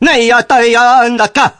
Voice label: nyaa nyaa dukkah male vox; MALE MISC